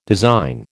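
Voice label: "design", American English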